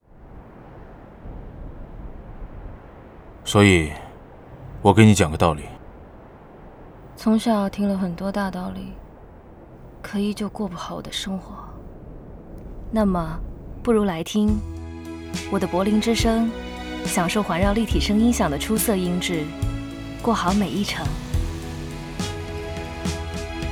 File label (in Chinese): (男声); 奔驰C级